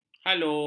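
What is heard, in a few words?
"halo", Malay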